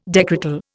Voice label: "decretal", Indian

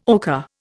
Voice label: "ocher", Indian

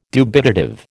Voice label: "dubitative", American

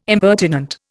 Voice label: "impertinent", Indian

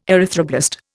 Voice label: "erythroblast", Indian

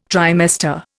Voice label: "trimester", Indian

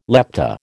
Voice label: "lepta", American

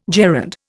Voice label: "gerund", Indian

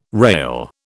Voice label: "rale", British

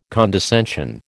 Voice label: "condescension", American

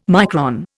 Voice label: "micron", Indian

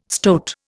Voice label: "stoat", Indian

